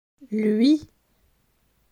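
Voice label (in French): "Lui", French; delf a1; les pronoms toniques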